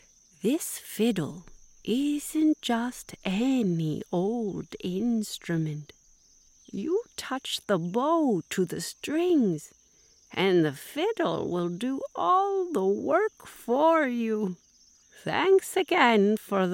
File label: Audiodrama